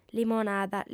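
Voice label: "limonada", female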